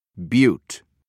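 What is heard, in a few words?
"butte", English, British English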